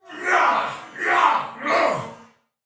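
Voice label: Attack Taunt Soldier Male, Battle; Dialog; Barks; Sinecure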